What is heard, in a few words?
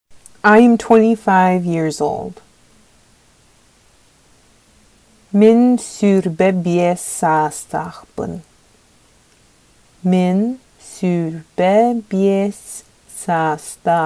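Sakha Im 25 years old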